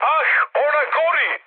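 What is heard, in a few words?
Serbian on fire mood high, speech; War Thunder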